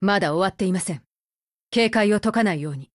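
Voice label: WIN Japanese, Voice; GF Alarm; AK15Mod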